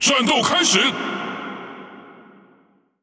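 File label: Speech Lets Battle, Taiwan; World Of Warships; ZH TW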